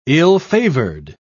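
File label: "ill-favored", English, British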